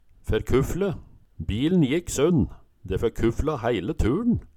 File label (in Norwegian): ferkufle, Numedalsmål; Bilen jikk sund, de ferkufla hæile turn